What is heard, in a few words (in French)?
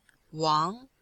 "wang2", chinois; Cours de Chinois